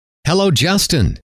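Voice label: hello, male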